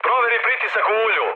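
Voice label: Serbian damaged oil mood high; War Thunder; speech srb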